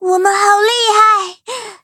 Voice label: victory, zh-hant; cookie run

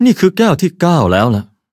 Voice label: levelup, th; cookie run

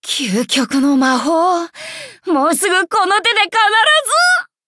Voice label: upgrade, ja; Cookie Run

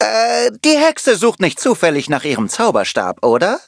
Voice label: loading, de; cookie run

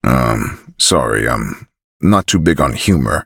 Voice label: touch, en; Cookie Run

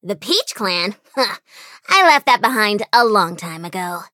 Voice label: eng menu tap; white peach

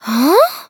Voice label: defeat, fr; cookie run

